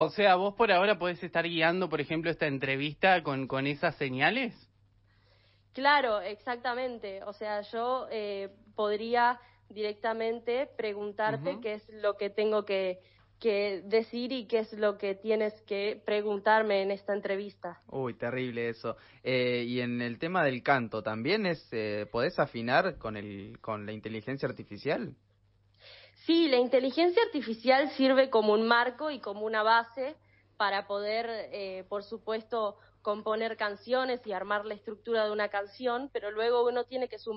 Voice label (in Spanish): Chica robot; Neuquen